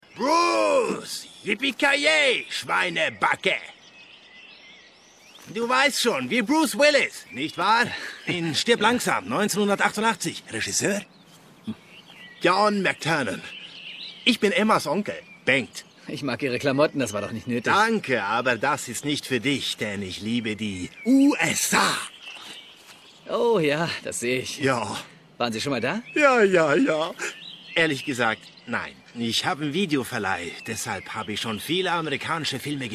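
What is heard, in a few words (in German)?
Synchron schwedischer Akzent; LOFT VOICES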